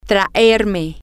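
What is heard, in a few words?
to bring me, Spanish, female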